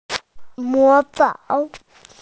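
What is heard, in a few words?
"mo fang" Chinese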